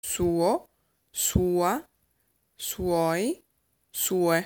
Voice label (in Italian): Suo, Italian; lesson 11 buono bello quello possesive adjectives family